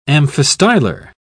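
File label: "amphistylar", British English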